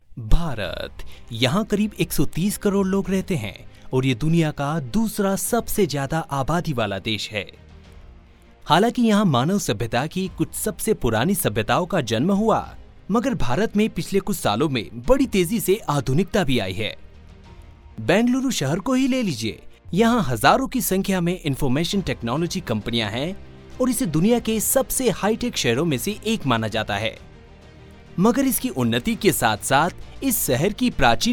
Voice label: Hindi Documentary

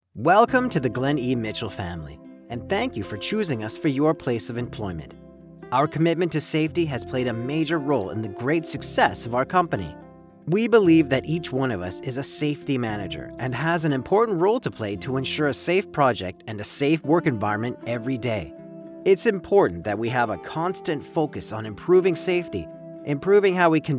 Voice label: Safety Ad w music

